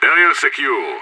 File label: clear, radio; IDF